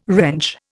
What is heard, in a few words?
"wrench", Indian